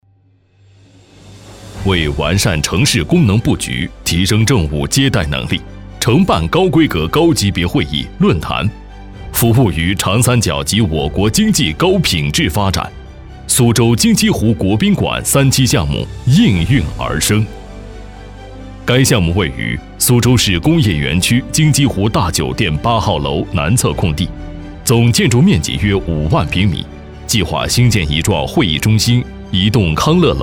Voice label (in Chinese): 男, 项目专题片